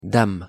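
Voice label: pronunciation "dame", French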